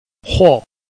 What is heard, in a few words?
"huo4", Chinese